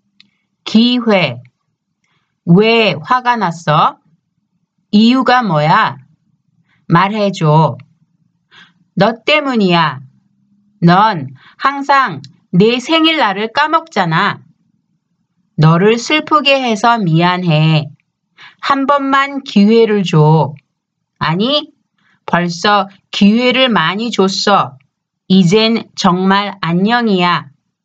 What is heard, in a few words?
conversation; K-Pop Volume 3